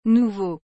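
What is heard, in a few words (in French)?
"nouveau", French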